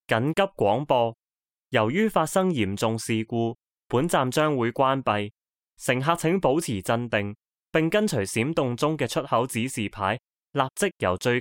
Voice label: Emergency Announcement; MTR

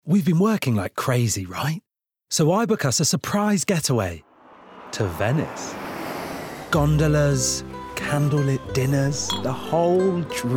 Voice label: Commercial Montage, british

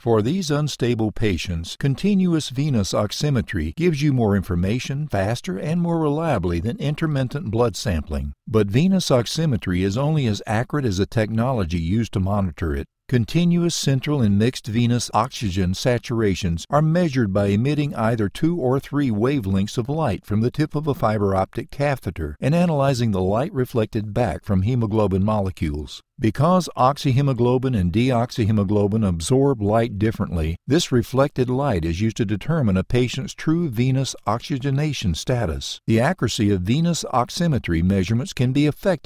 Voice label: Medical Narration

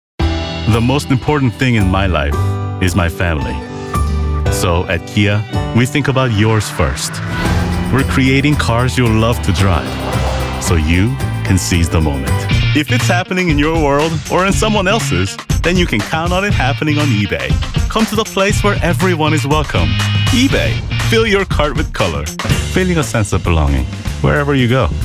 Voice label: American commercial

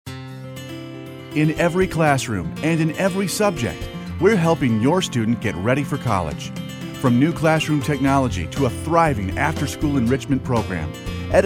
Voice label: on hold

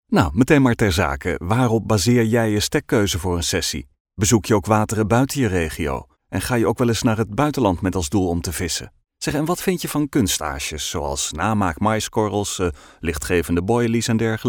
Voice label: interview natural

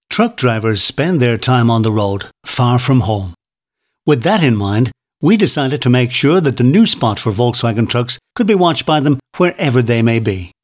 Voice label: Elearning Corporate Internet

